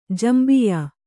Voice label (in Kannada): "ಜಂಬಿಯಾ", Kannada